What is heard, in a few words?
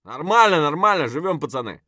mutter; Escape from Tarkov; scav5